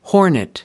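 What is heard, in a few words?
"hornet", American English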